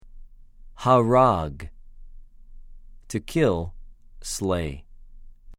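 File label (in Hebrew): "הָרַג", Hebrew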